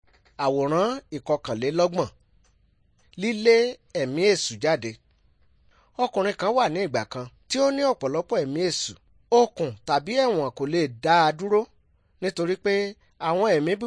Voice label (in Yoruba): good news yoruba